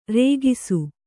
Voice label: "ರೇಗಿಸು", Kannada

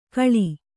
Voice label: "ಘಾಳಿ", Kannada

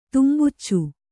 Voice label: "ತುಂಬುಚ್ಚು", Kannada

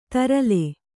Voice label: "ದರಲೆ", Kannada

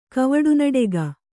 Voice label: "ಕವಡುನಡೆಗ", kannada

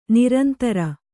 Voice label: "ನಿರಂತರ", Kannada

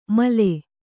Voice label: "ಮಾಲು", Kannada

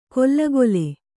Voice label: "ಕೊಲ್ಲಗೊಲೆ", Kannada

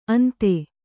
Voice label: "ಅಂದಿ", Kannada

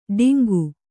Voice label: "ಡಿಂಗು", Kannada

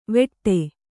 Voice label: "ವೆಟ್ಟೆ", Kannada

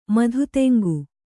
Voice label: "ಮಧುತೆಂಗು", Kannada

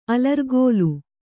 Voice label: "ಅಲರ್ಗೋಲ", Kannada

